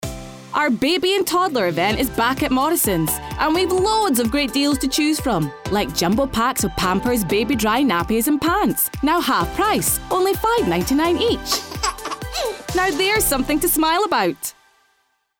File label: Commercial; Morrisons